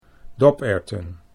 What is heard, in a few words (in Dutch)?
"doperwten", Dutch